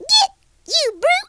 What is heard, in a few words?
"go away", Southern Belle; speech